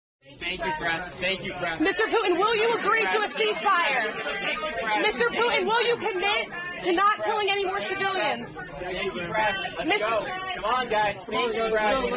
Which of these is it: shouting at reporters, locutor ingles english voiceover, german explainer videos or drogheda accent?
shouting at reporters